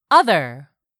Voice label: "other", English; 3000 words

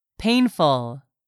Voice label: "painful", English; 3000 words

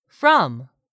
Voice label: "FROM", english; 3000 words

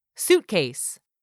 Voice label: "suitcase", English; 3000 words

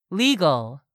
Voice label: "legal", English; 3000 words

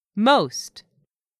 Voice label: "most", English; 3000 words

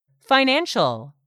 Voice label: "financial", English; 3000 words

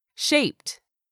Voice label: "shaped", english; 3000 words

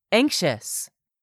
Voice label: "anxious", english; 3000 words

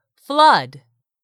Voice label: "flood", English; 3000 words

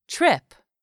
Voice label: "trip", English; 3000 words

